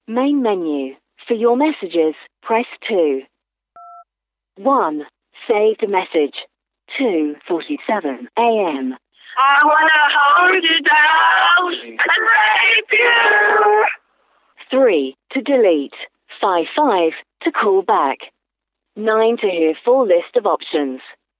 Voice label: voice mail